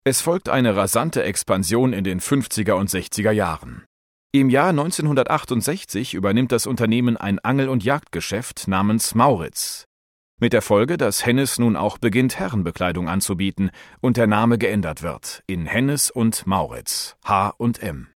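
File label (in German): elearning, deutsch männer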